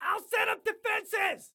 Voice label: Self Task Defenses, Excited Guy